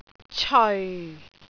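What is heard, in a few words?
"ceoi4", Cantonese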